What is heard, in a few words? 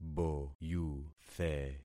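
"boyuthe", concatenated syllables; Affixing experiment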